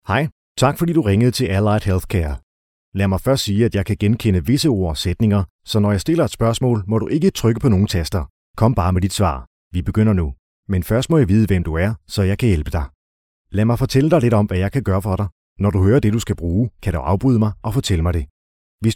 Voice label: M Danish Greeting Menus